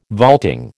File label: "vaulting", American